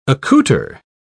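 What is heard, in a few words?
"accouter", British English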